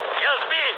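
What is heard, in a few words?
Russian aircraft destroyed mood low, speech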